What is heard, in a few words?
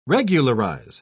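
"regularise", British English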